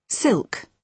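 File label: "silk", British English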